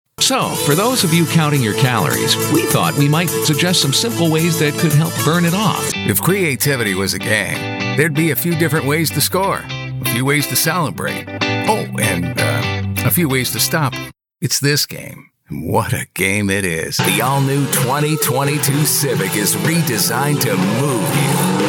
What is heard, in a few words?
Commercial, Voice Overs